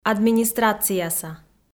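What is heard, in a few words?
"администрацияса", Komi-Zyrian, female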